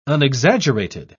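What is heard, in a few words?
"unexaggerated", British English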